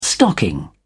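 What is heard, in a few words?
"stocking", English, British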